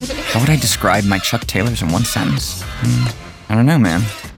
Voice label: VO Hip Aloof Cool; Voice Over Read Styles